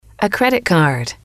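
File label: a Credit Card, english, female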